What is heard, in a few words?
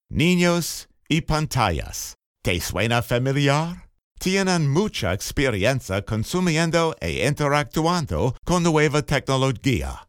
Narrator Spanish; Ninos Y Pantallas